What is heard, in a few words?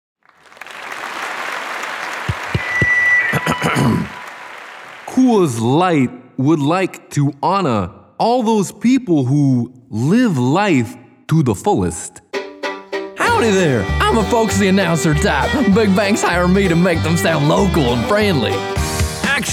Character